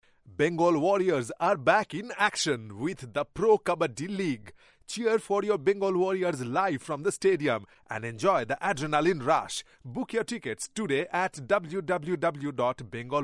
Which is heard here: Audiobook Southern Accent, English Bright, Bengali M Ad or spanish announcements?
Bengali M Ad